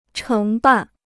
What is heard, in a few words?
"承办", Chinese